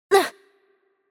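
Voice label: Hurt Light, Chinese; VO; bloom minigame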